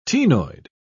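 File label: "ctenoid", British English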